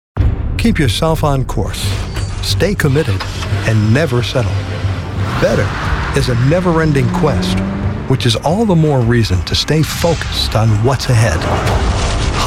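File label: Commercials, voice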